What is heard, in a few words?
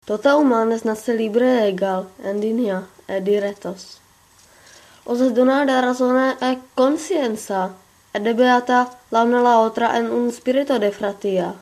udhr lfn